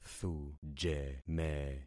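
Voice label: "thujeme", concatenated syllables; Affixing experiment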